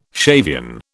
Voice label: "Shavian", British